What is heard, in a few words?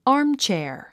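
"armchair", English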